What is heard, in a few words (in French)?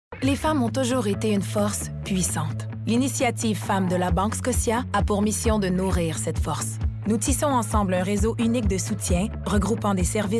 Annonceur